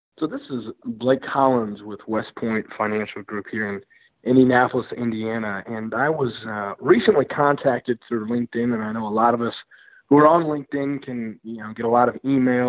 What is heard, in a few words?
Testimonial; Impact Makers Radio